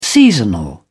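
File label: "seasonal", British English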